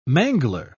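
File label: "mangler", British English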